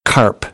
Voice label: "carp", American English